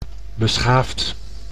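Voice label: "beschaafd", Dutch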